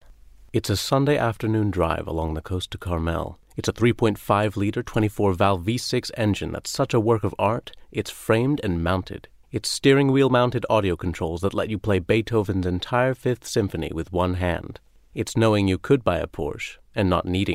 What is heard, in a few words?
English US